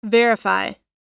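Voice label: "verify", American English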